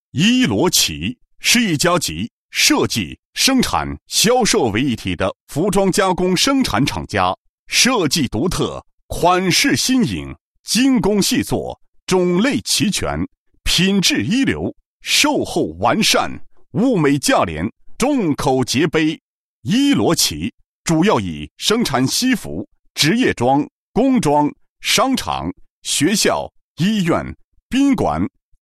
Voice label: 男 促销, 国语男声配音; 依罗琪

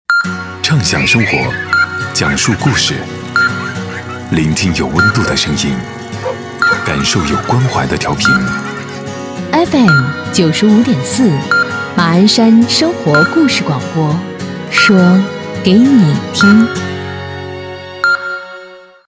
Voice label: 男 台宣 故事广播, 暖声; 畅想故事

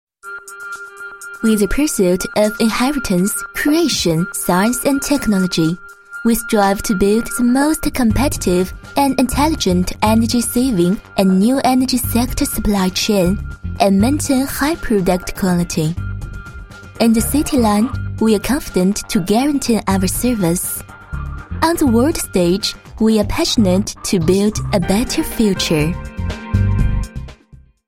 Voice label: 女 英文解说

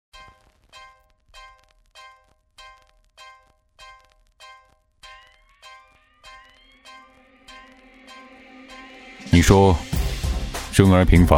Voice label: 男-广告; 华为非凡

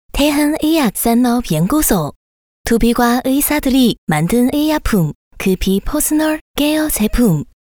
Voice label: 韩语

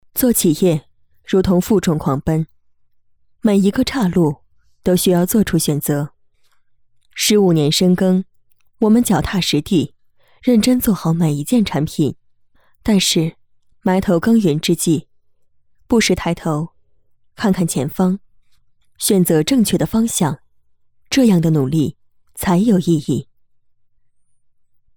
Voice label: 女 旁白类 选择旁白女

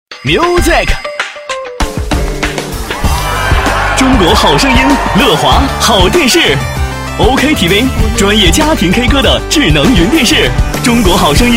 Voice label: 男 广告 电视 年轻时尚, 国语男声配音; 乐华; 央视

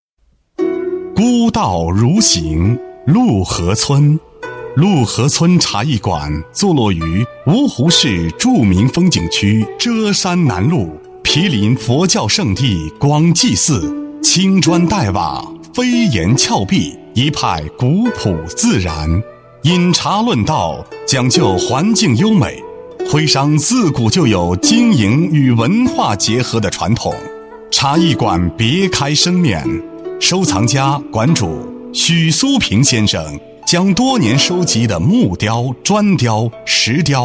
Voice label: 男 男高音 专题; 国语男声配音; 文化优雅 鹿湖春茶艺馆

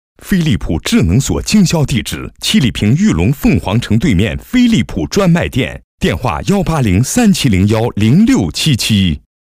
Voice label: 男,广告; 飞利浦

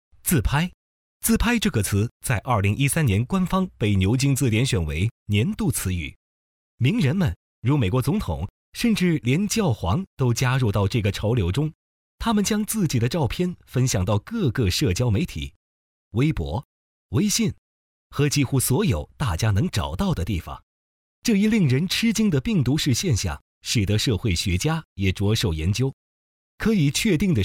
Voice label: 男 轻松类, 国语男声配音; 雅漾病毒片

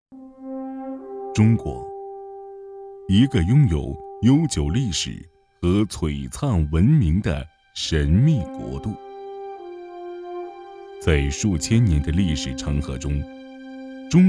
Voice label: 男 宣传片; 五粮液

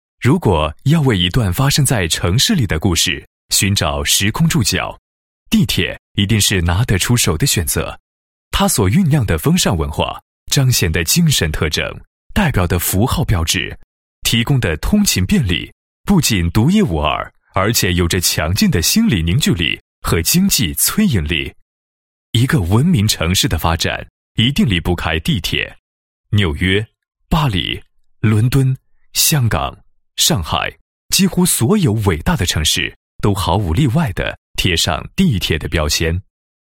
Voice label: 男 传媒; 地铁传媒 引领DT时代

